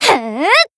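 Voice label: Voice attack, Japanese; orleya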